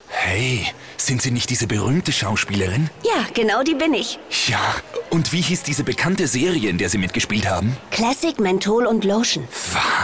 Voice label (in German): Mann